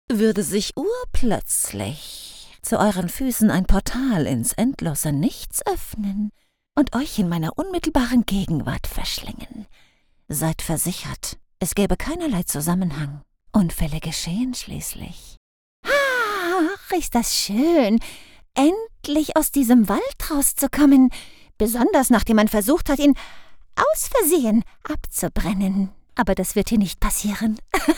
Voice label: german video games